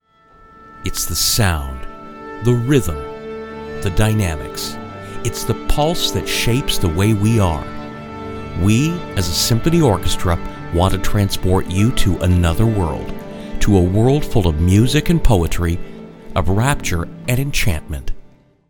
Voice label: Symphony Orchestra Promo

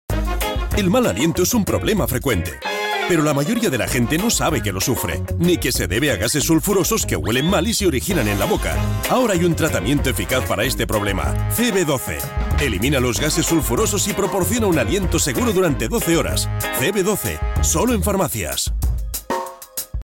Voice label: TV Commercial

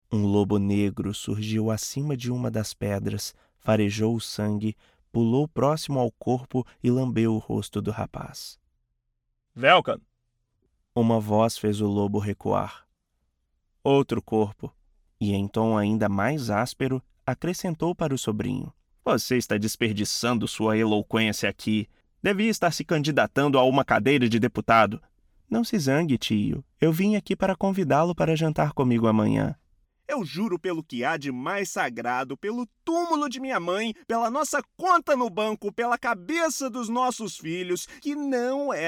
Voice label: Audio Books

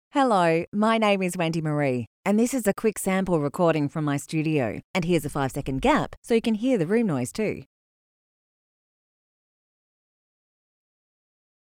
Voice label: Studio Sound Check

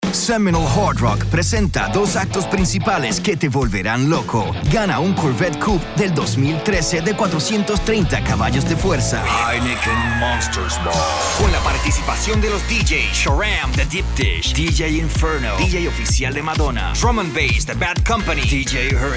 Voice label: Promo

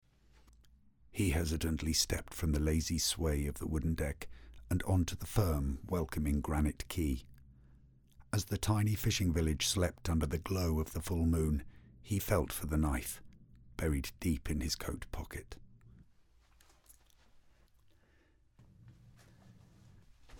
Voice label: Voiceover

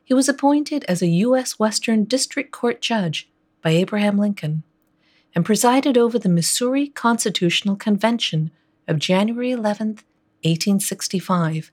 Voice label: American audiobook

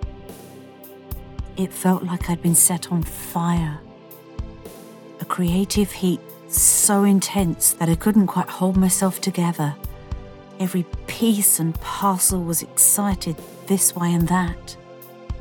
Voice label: Lovely Voice, Sexy

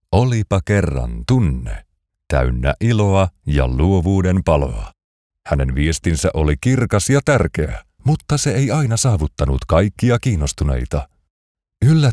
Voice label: Storyteller

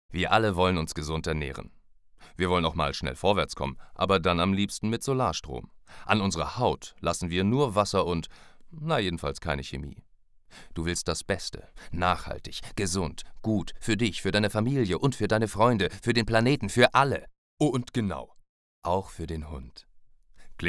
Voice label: german youtube sales film

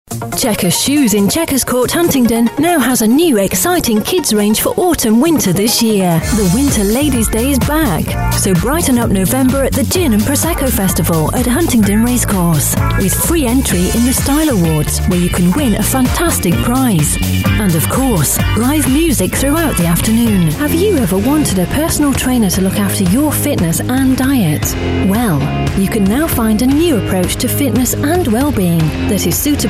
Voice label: Radio Commercials

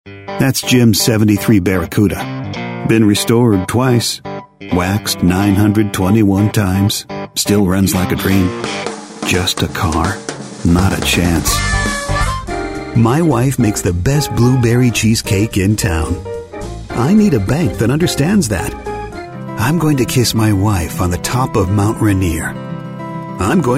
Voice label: Real Conversational

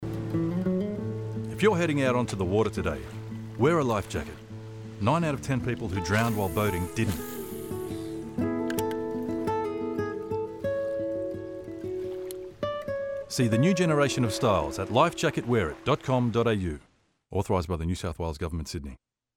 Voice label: Lifejacket TVC; TVR

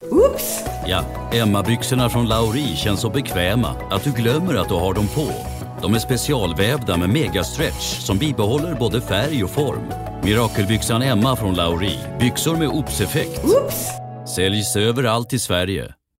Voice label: in Swedish Bubbly clothing brand ad

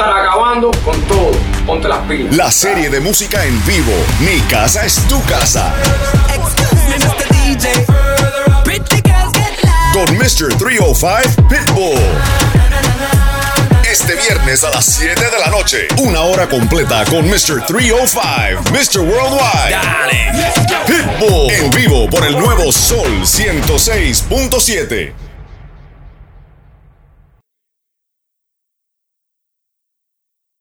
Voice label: Spanish Live Radio Bilingual SPANISH

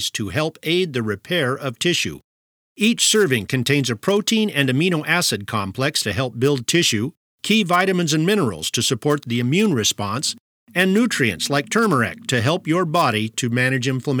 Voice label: Mend Ad

